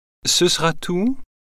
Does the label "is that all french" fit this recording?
Yes